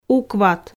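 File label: "укват", Komi, female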